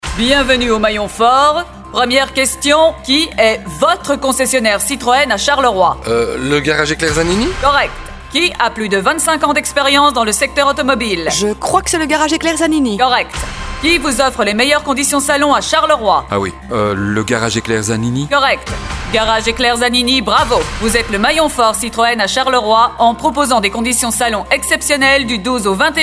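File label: French, female, character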